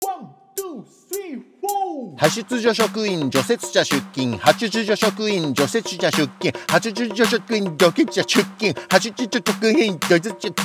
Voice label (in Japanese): 早口言葉リズム（中級編）